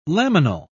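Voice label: "laminal", British English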